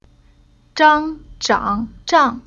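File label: "Zhang", chinese syllable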